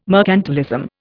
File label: "mercantilism", Indian